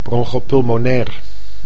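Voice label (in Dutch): "bronchopneumonair", Dutch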